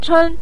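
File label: "chen" Mandarin Chinese, neutral tone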